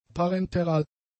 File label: "parenteral", German; adjectives